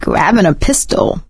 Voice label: take pistol, survivor voice; Left 4 Dead 2; producer